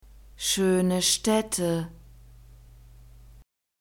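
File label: "schöne Städte", German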